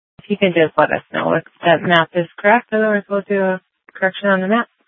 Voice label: Sheriff, scanner; Maricopa County (P25) - Thompson Peak; Shrf Lakes